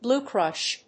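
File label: "blue crush", English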